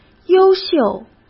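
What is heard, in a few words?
"优秀", Mandarin Chinese; chinese-flashcards; hsk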